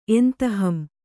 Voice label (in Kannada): "ಎಂತಹಂ", Kannada